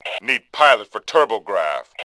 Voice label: need flyer, Male; Tribes2

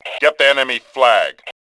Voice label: Male2; Tribes2

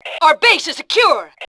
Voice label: secure, Fem; voice

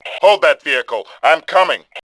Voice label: "need hold", voice, Male; Tribes2

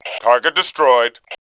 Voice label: destroyed, Male; voice; Tribes2

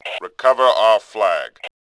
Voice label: Male; chase; Tribes2

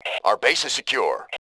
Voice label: secure, male

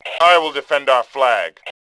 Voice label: Male2; Tribes2

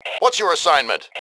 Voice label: bot, male; voice

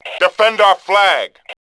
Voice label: flag, Male; voice; Tribes2

